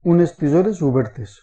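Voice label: scissors small open desc, Catalan; tuxpaint-stamps; household; arttools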